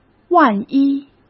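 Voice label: "万一", Mandarin Chinese; hsk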